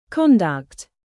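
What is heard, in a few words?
"produce", verb, British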